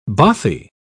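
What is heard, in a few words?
"bothie", British English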